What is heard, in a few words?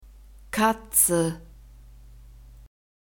"katze", german; alphabet